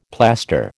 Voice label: "plaster", American

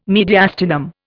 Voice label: "mediastinum", Indian

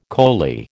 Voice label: "coley", American

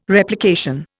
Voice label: "Replication", Indian

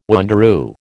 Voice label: "wanderoo", American

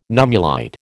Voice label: "nummulite", American

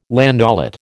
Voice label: "landaulet", American

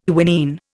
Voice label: "quinine", Indian

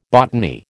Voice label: "botany", American

